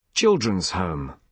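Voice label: "children's home", British English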